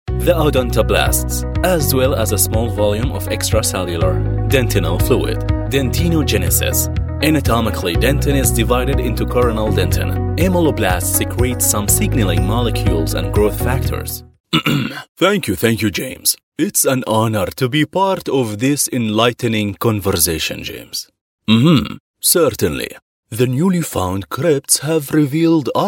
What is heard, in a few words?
arabic male english portfolio